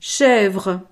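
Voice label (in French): "chevre", French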